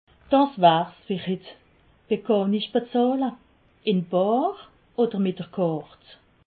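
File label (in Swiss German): "kart", Alsatian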